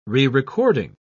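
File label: "rerecording", British English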